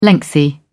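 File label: "lengthy", British English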